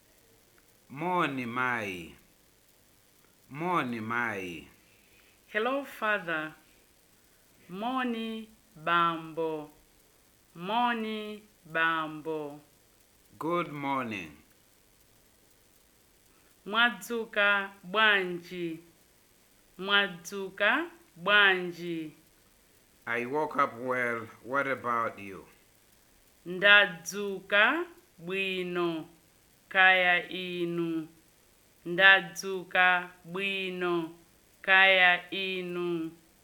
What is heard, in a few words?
Chichewa Dialogue; Malawi